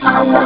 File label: robo talk